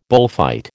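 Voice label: "bullfight", American English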